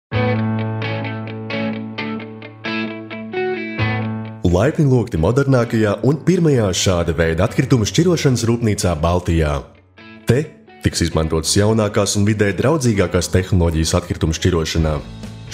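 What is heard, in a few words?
Latvian, male, commercial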